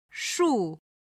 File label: "shu4", chinese